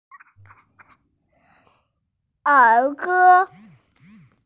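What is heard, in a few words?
"er2ge1", Mandarin Chinese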